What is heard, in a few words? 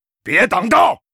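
yes, Chinese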